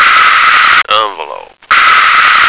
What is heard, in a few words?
"envelope", American